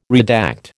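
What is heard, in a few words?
"redact", American English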